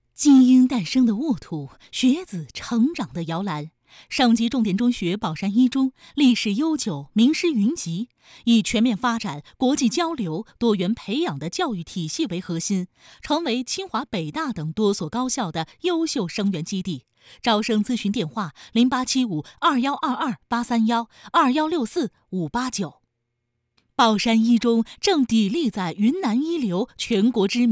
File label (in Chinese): 招生广告白播; 保一中招生广告白播